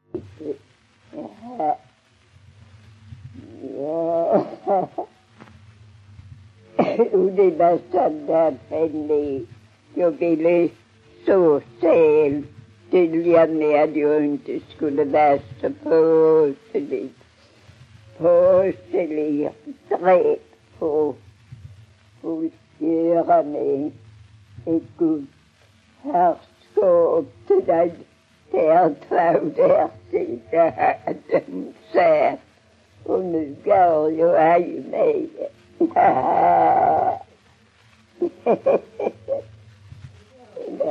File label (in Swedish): Strövelstorp SK, dialekter; Folkminnen